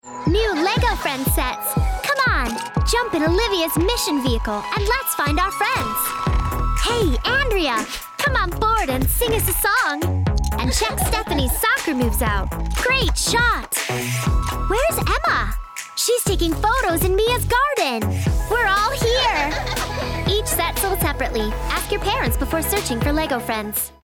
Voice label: Child bright enthusiastic; Lego Friends